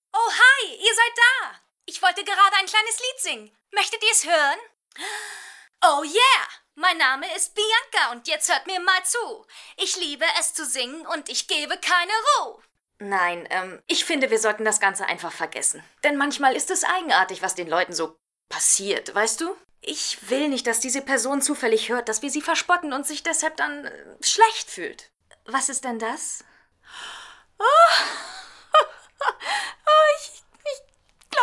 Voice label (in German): Synchron